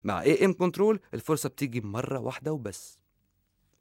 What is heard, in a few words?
software ad